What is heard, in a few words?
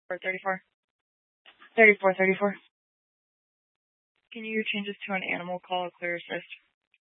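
Police Dispatch, Scanner audio; Police 1 Dispatch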